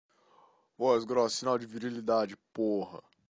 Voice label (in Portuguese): voz groca